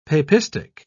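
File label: "papistic", British English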